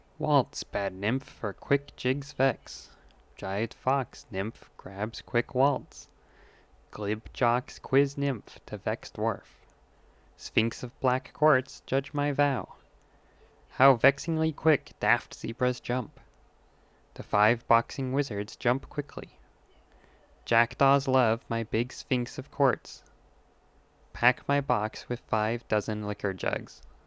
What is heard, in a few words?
Pangram Hand Recording Morning